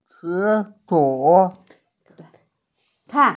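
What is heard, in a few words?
"zishu", Mandarin Chinese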